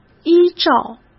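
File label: "依照", Mandarin Chinese; chinese flashcards; hsk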